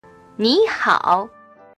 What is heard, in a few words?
"nihao", Chinese